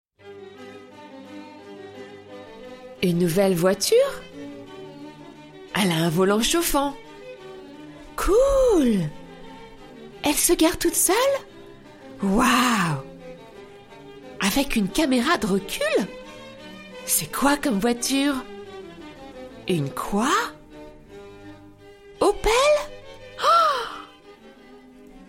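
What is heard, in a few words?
French Commercial; GoLocalise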